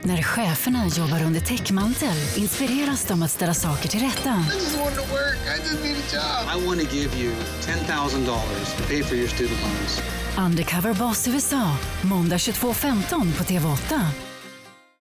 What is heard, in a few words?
female swedish promo; undercover boss